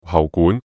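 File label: "喉管", Cantonese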